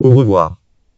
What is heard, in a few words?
"au revoir" French